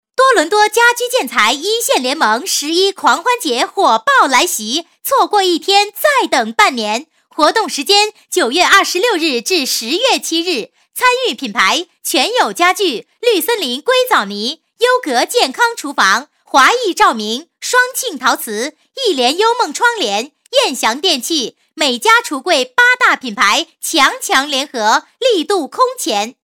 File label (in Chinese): 女 促销 建材促销, 国语女声配音